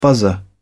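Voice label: "buzzer", English, British English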